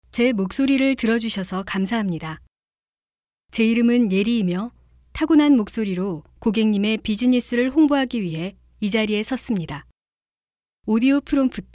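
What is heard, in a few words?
korean female narration; voice demos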